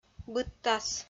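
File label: "быдтас", Komi, female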